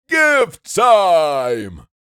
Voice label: Gift time santa claus voice

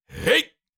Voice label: Cartoon lion voice "jump"

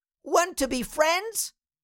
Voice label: Cartoon little child voice; want to be friends

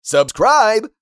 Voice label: "Subscribe" social media male; shorts sound effects

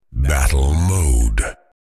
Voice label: Deep male voice battle mode

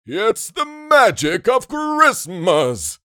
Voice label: The magic of christmas santa claus voice

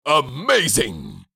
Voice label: Amazing cartoon voice funny comedy

